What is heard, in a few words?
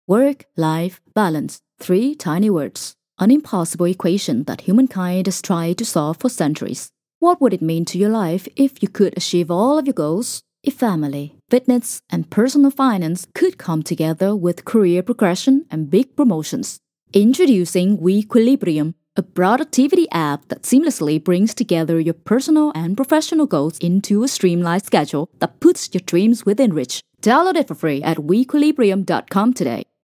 Believable Confident dry, Warm, Engaging, Dynamic Female Voice